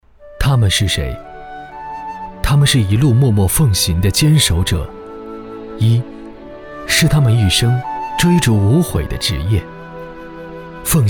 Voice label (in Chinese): 男, 走心